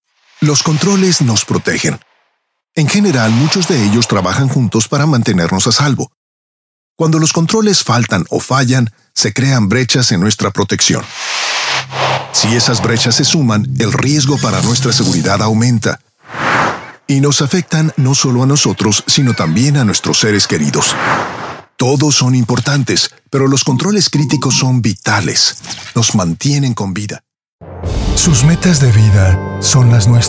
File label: Spanish Latin; Spanish-Latin (Argentina), Male, Studio, 20s-30s